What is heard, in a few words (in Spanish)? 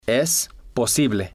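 "es posible", spanish, male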